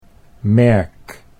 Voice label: "merk", Dutch